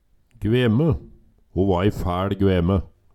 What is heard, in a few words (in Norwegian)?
gveme, Numedalsmål; Ho va æi fæL gveme